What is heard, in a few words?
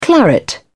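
"claret", British English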